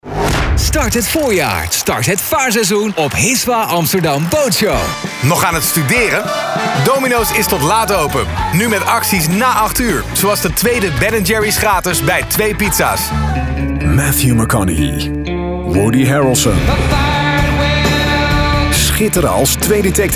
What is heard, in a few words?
Commercials Kort; SoundFX